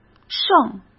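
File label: "剩", Mandarin Chinese; chinese-flashcards; hsk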